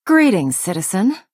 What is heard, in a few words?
Breton female "hello"